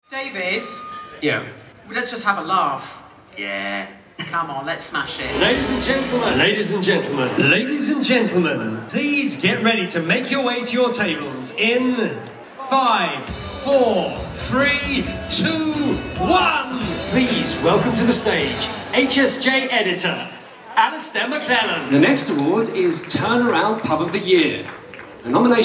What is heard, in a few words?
voices male english live voice of god compilation